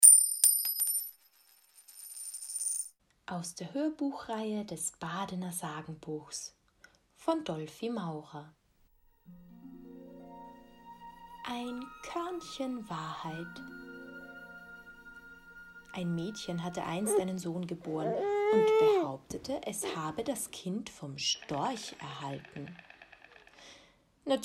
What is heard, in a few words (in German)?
Hörspiel